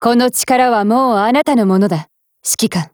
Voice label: DIALOGUE, JP; MG5

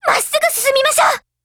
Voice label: attack, JP; Carcano1891

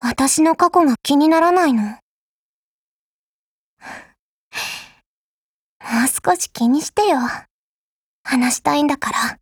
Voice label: DIALOGUE, JP; UMP45Mod

